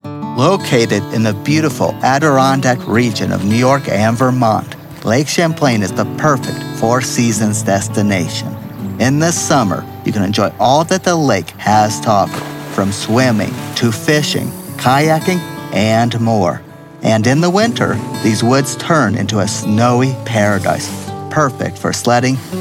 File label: Narration